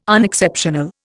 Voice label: "unexceptional", Indian